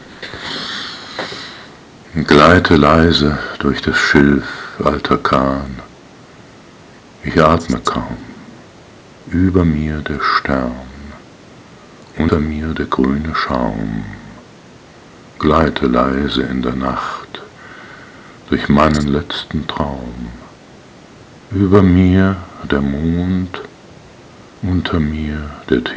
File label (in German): Die weibliche Stimme